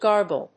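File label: "gargle", English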